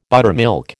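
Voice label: "buttermilk", American English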